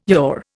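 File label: "Yahweh", Indian English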